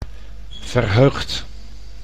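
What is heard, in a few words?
"verheugd", Dutch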